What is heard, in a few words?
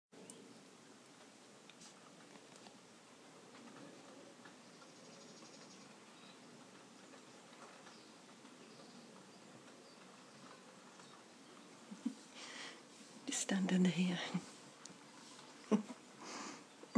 Rain, awkward, chance meeting in the rain; F4M; old flames